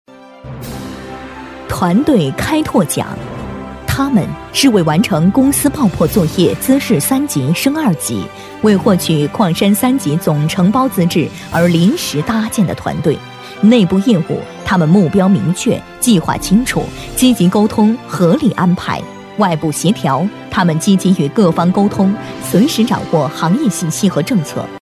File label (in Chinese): 女 颁奖配音 企业颁奖盛典-大气, 大气激昂